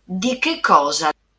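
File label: pronunciation "di che cosa", Italian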